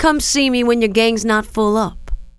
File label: full, female; kingpin; hiredgirl